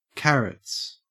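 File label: "carrots", Australian English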